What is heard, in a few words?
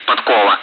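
"Podkova", Russian; DCS; ATC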